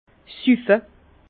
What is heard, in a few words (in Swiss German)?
"suffe", Bas Rhin; vin; boire terme grossier